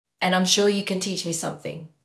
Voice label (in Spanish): Voice Acting es F0